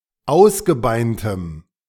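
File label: "ausgebeintem", German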